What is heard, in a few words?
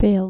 "pale" dutch